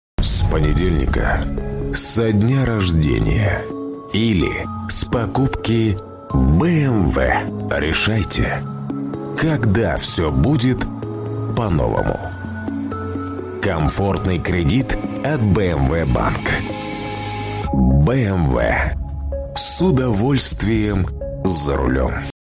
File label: Russian voice over short commercial advertisement